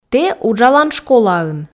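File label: "тэ уджалан школаын", Komi, female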